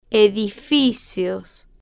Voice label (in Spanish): "e-di-fi-cios", Spanish